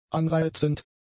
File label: "anreizend", German; adjectives